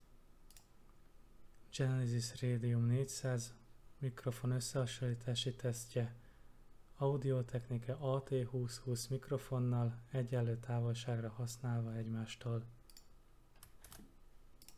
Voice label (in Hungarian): Radium osszehasonlitas, mikrofon; Genesis Radium 400; Teszt alanya